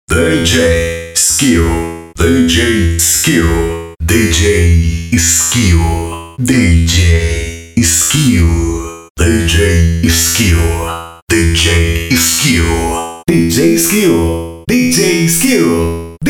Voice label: vinheta com voz de robo para djs voz metalica voz metalizada; voz robotic

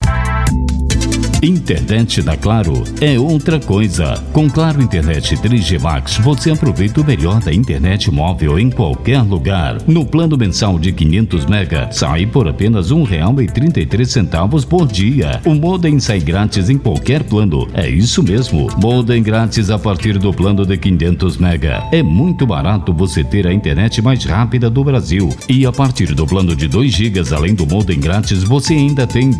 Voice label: locutor spot; claro itabuna

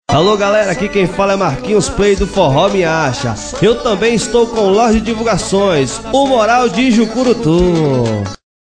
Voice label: vinheta

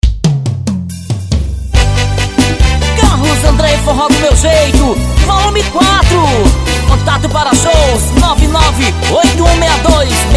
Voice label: amigo locutor

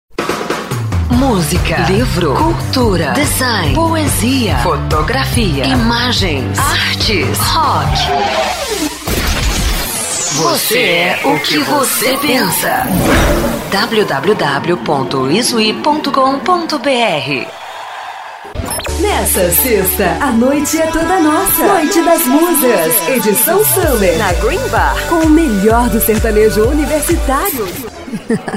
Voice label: loc feminina; studiomaster

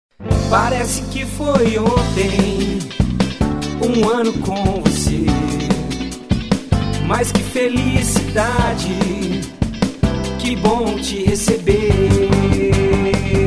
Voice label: aniversario comercial; hiper comercial monlevade

